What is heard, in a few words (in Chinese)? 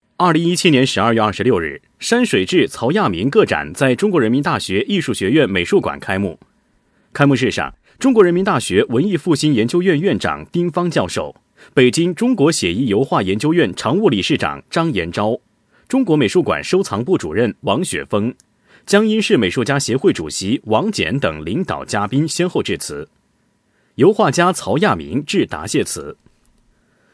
新闻